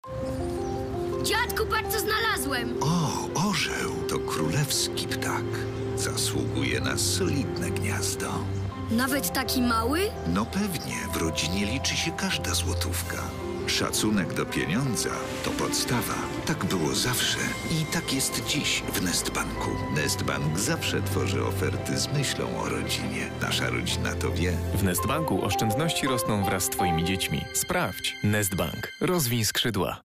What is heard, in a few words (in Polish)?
spot; Nest Bank